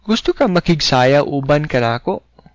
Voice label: dance, cebuano